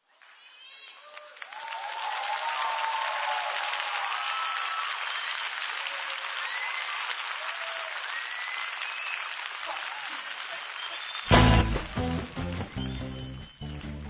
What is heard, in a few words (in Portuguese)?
Aniversário Neutro; Telemensagens 2017; Aniversários; Aniversários diversos